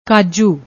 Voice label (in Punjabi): "kaaju", Punjabi; ਕਾਜੂ